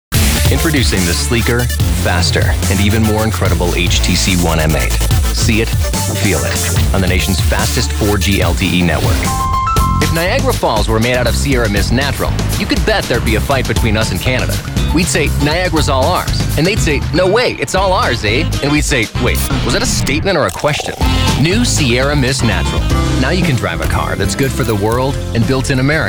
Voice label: Commercial